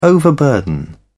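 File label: "overburden", British English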